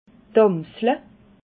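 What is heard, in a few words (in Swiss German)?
"amsle", Alsatian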